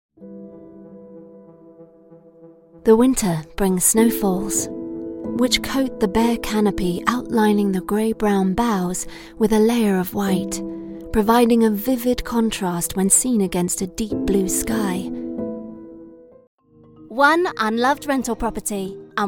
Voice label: Narration